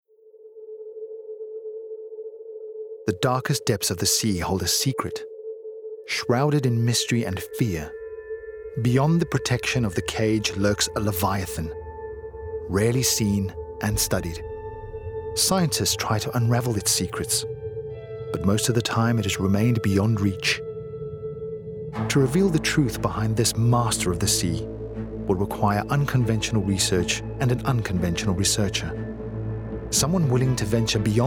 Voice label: Narrative Reel

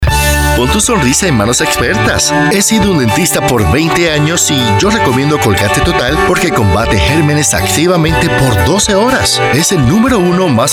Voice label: Commercials

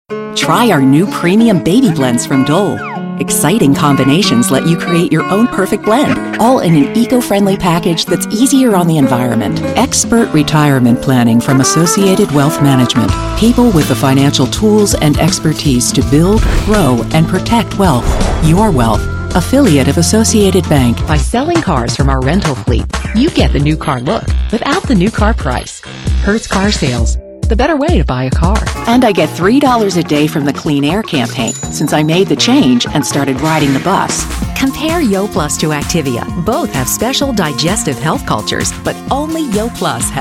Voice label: Commercial

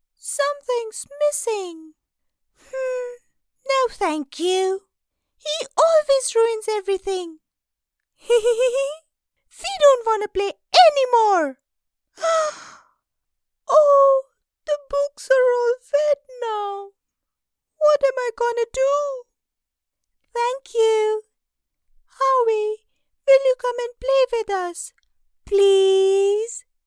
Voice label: little girls voice in English

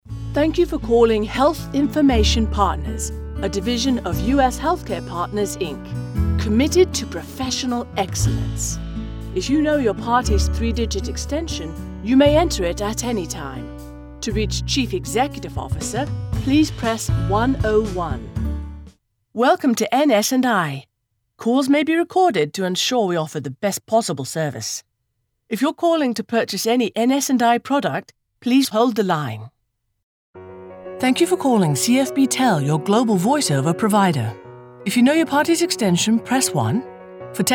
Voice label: UK Phone

